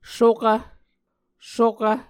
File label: "sokv", Muscogee